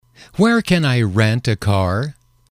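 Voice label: "where can I rent a car", English, male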